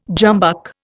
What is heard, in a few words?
"jumbuck", Indian